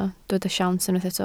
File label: "siawns", Welsh